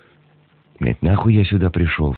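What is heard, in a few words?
"prishel", Russian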